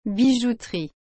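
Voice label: "bijouterie", French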